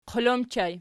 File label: "qol", Archi